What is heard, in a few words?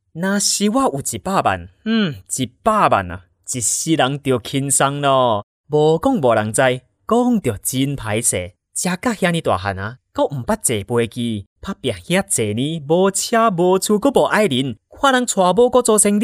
taiwanese commercial